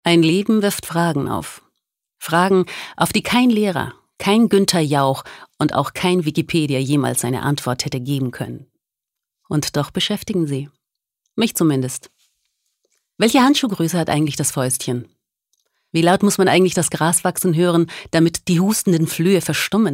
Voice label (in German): Schauspiel Dynamisch